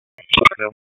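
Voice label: EMS Dispatch; wake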